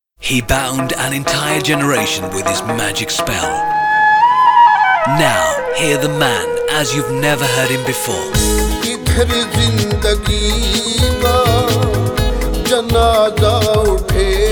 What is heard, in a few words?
Advert